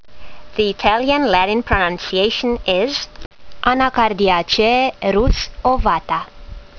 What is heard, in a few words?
latin; Rhus ovata